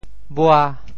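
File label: "bua5", Teochew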